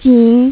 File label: "pound" female mandarin; MediaTek; HumanVoice